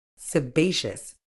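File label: "sebaceous", British English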